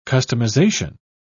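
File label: "customization", British English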